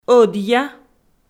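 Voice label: "ӧдъя", Komi, female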